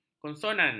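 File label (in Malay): "konsonan", Malay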